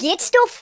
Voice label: flawless, Geordie; speech